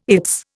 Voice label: "its", Indian

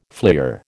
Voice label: "fleer", American

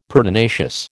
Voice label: "pertinacious", American

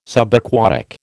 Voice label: "Subaquatic", American

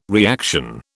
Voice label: "reaction", British

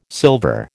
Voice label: "silver", American

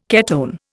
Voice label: "ketone", Indian

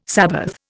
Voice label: "sabbath", Indian

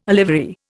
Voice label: "olivary", Indian

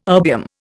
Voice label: "erbium", Indian

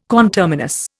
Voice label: "conterminous", Indian

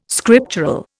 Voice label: "scriptural", Indian

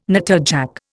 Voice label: "natterjack", Indian

